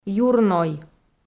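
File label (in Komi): "юрной" Komi, female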